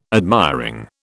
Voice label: "admiring", British